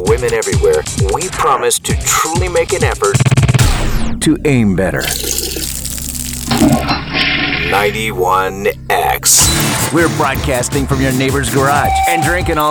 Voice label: Classic Rock Imaging

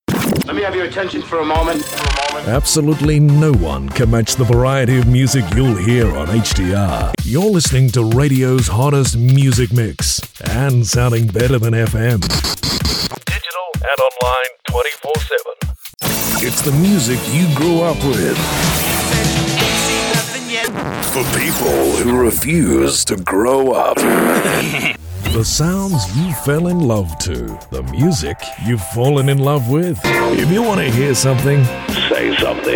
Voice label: Liners

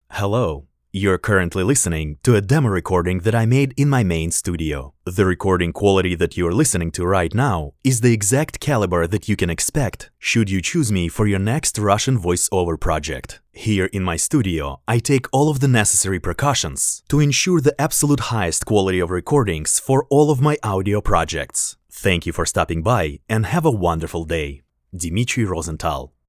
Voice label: studio test English

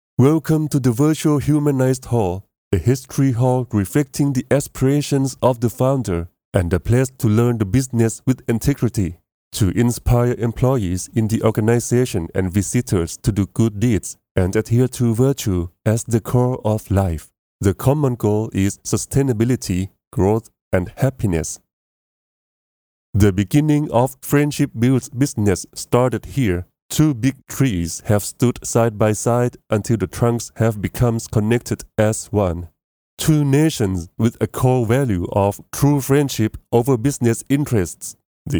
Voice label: Narration Male English Calm Credible

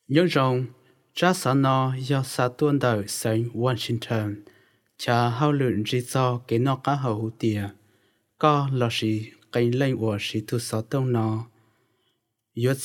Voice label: Hmong male

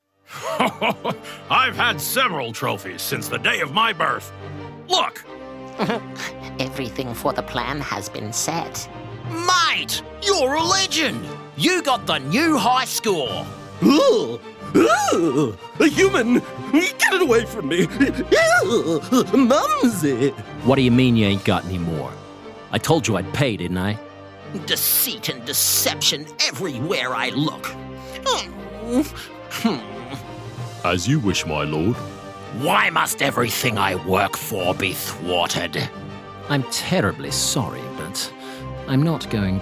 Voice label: Character

